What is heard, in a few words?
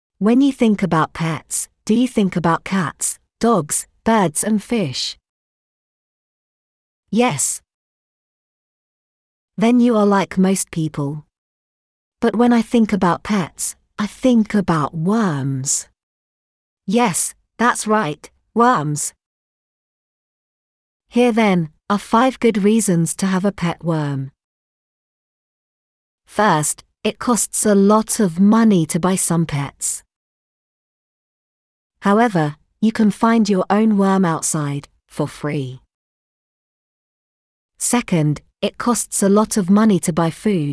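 British; pet worm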